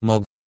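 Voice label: "mogh", Proto-Indo-European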